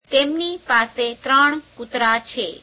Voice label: gujarati, he has three dogs; [3rd pronoun + verb]